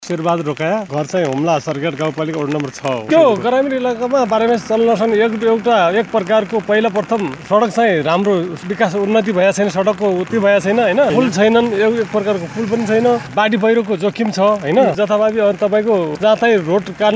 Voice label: voxpop